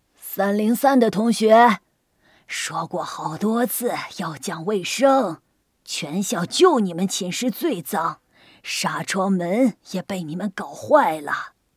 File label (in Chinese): 女 模仿 老奶奶, 国语女声配音